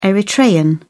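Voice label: "eritrean", British English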